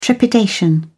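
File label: "trepidation", British English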